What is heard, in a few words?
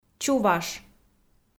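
"чуваш", Komi-Zyrian, female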